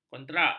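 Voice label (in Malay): "kontrak", Malay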